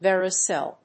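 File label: "Vero cell", English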